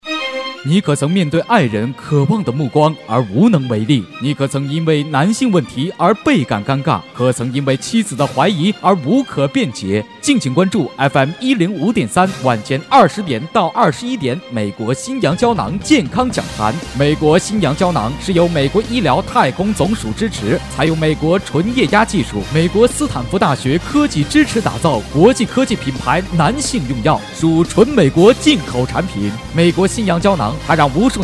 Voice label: boy medical, Chinese, guanggao